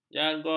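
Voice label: "jargon", Malay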